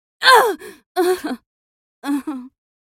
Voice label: dying, en US; English; Character Voices